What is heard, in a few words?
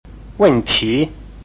"wen4ti2", Chinese